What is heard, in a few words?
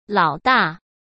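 "老大", Mandarin Chinese; hsk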